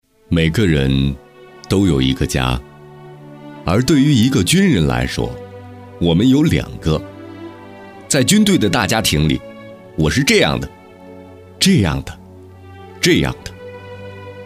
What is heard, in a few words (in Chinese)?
男-深情讲述; 军队家